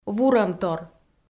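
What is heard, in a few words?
"вурӧмтор", Komi, female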